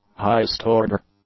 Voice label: "highest-order", English; adjectives